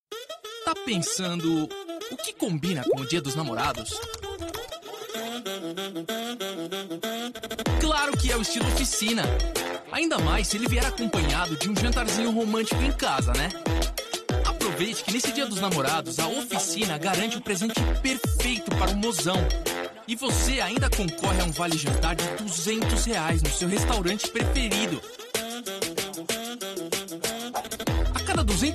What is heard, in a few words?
portuguese brazilian promos